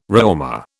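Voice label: "Réaumur", British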